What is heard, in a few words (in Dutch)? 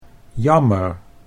"jammer", Dutch